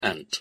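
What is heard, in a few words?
"ant", English; pronunciation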